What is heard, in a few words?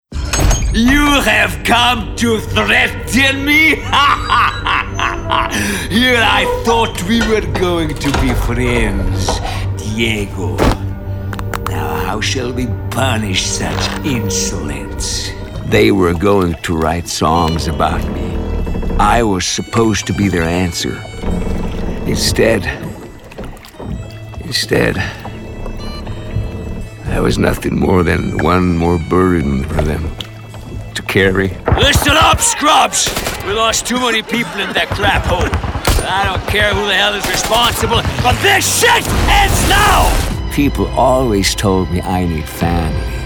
vo character